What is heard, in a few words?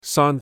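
"san1", Cantonese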